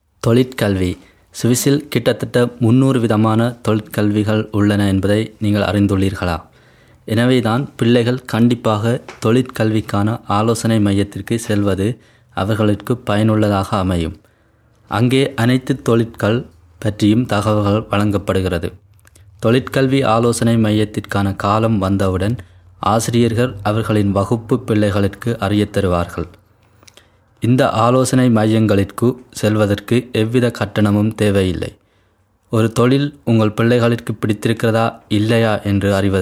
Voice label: tamil